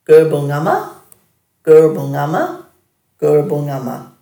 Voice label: "gurbungama", Dhurga

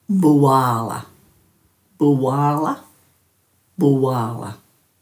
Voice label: "buwarla", Dhurga

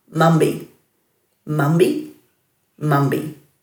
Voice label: "mambi", Dhurga

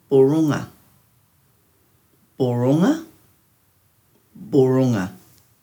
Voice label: "burunga", Dhurga

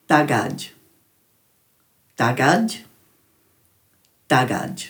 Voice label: "dhagadj", Dhurga

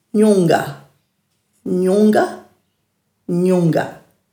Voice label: "njungga-", Dhurga

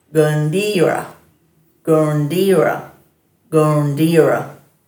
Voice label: "gurndiira", Dhurga

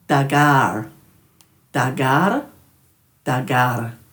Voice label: "dhagarr", Dhurga

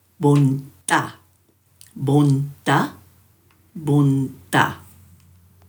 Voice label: "bundha-", Dhurga

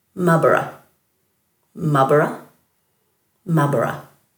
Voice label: "mabara", Dhurga

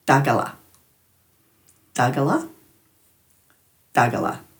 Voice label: "dhagala", Dhurga

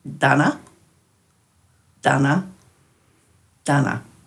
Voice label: "dhana", Dhurga

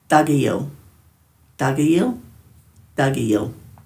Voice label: "dhagayil", Dhurga; "I don't know"

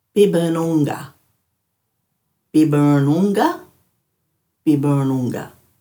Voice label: "biburnungga", Dhurga

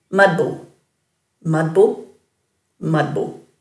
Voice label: "madbu", Dhurga

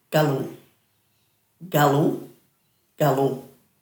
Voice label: "galu", Dhurga

